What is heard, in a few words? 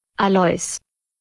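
"Alois", German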